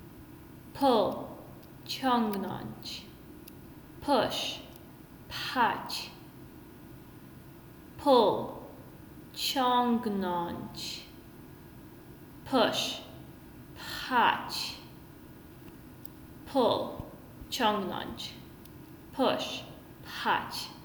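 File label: Pull Push, Polish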